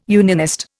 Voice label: "unionist", Indian